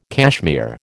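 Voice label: "cashmere", American English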